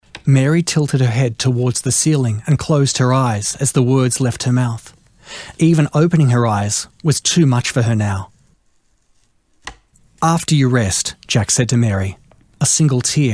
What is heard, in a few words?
Emotional Short Story